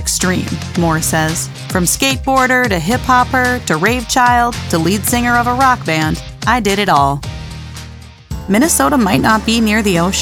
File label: Voice Over Narration